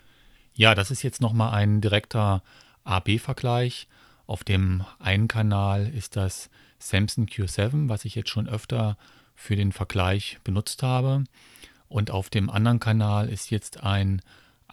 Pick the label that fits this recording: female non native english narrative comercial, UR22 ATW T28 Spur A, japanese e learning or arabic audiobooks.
UR22 ATW T28 Spur A